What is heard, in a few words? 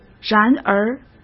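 "然而", Mandarin Chinese; chinese flashcards; hsk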